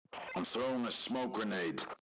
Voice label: smoke, radio

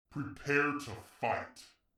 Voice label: "prepare to fight", announcer; instagib; blocks and bullets

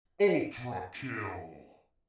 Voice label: "ultra kill", announcer; instagib; blocks and bullets

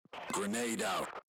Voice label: grenade, radio

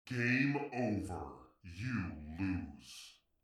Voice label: game over you lose, announcer; instagib; blocks and bullets

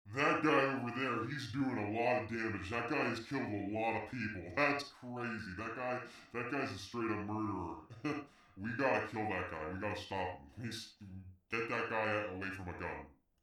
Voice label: "mega ultra kill", announcer; instagib; blocks and bullets

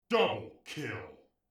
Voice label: "double kill", announcer; instagib; blocks and bullets

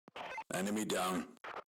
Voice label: enemy down, radio

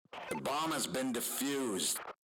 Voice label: bomb diffused, radio

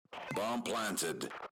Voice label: bomb planted, radio

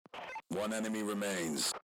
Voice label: enemy, radio

